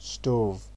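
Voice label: "stove", Nigerian Pidgin, male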